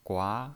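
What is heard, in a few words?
"gua", Mandarin Chinese, second tone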